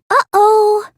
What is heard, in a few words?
uh oh; drv3